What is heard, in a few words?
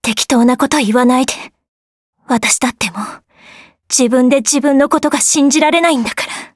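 start, Japanese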